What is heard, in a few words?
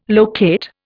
"locate", Indian